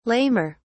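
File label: "lamer", British English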